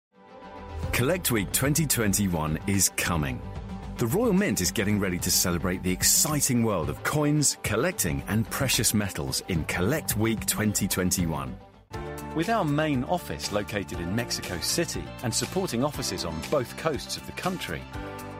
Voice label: corporate narration